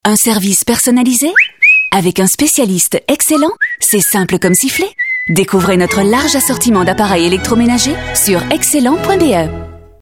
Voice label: french promos